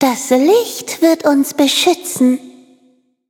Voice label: loading, de; cookie run

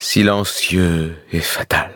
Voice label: levelup, fr; Cookie Run

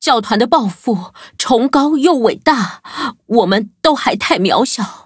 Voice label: defeat, zh-hant; cookie run

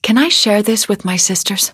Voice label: level up, en; Cookie Run

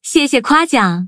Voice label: levelup zh-hant; cookie run

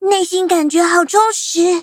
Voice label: levelup zh-hant; cookie run

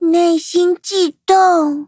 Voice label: loading, zh-hant; Cookie Run

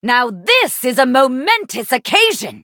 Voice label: levelup, en; Cookie Run

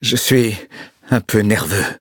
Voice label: levelup, fr; cookie run

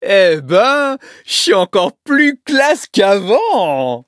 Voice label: levelup, fr; Cookie Run

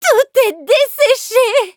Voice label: defeat, fr; cookie run

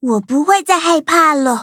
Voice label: levelup zh-hant; Cookie Run

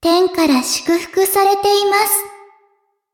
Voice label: level up, ja

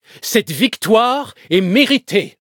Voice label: victory, fr; cookie run

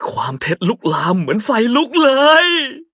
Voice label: level up, th; cookie run

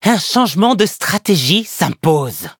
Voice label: defeat, fr; cookie run

